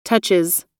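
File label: "touches", US English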